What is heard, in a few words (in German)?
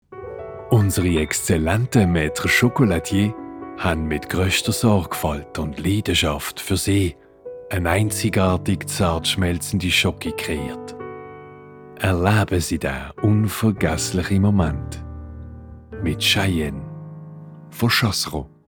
werbung; chasseron